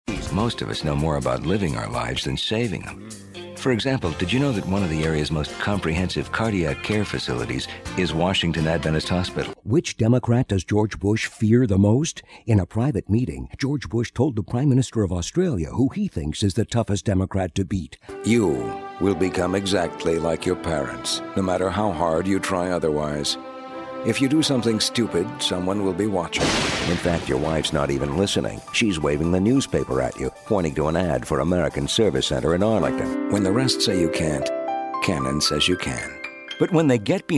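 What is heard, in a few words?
commercial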